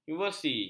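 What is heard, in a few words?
"inversi", Malay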